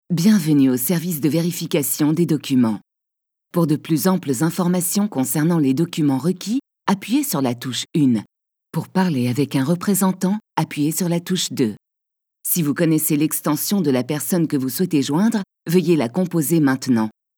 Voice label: IVR; Ez-Trader

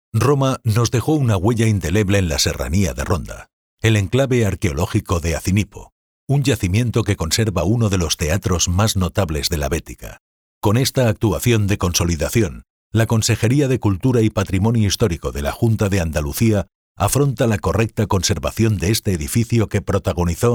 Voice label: Spanish narration

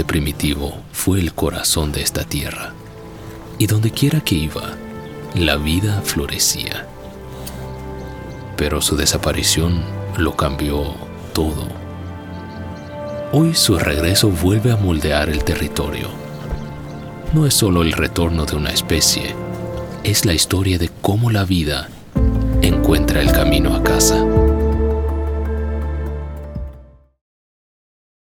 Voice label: spanish latin american documentaries

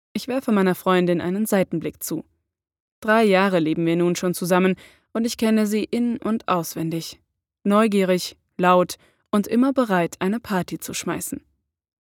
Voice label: german audiobooks

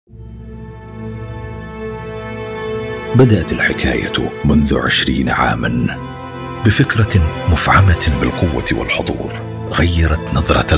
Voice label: arabic

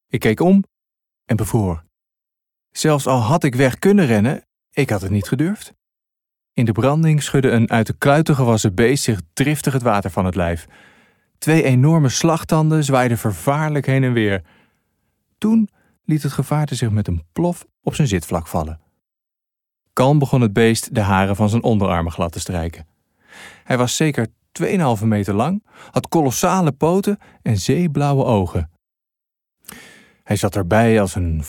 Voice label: Dutch audiobooks

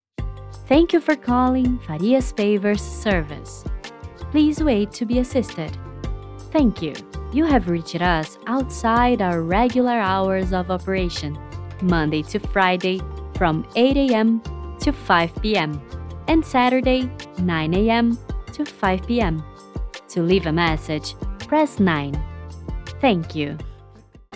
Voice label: english ivr

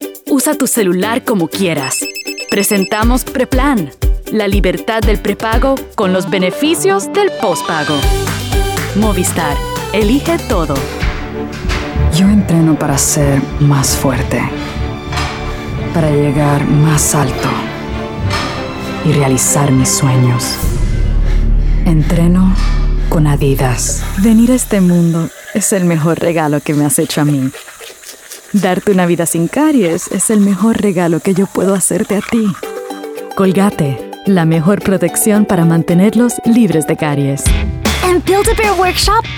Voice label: Spanish Latin American neutral commercial

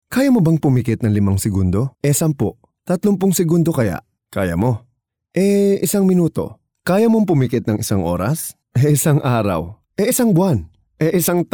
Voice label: tagalog narration

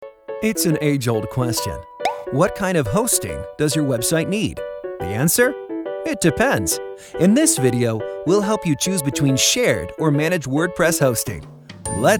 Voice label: english american training e-learning

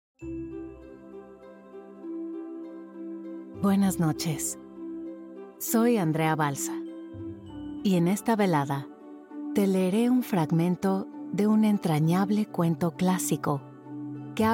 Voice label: english audiobooks; zweisprachiger Synchronsprecher in Englisch und Spanisch

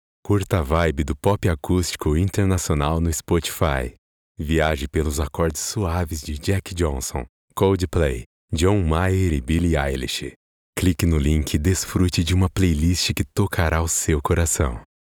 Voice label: Portuguese Brazilian promos

